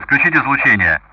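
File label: Speech Sound Russian; DCS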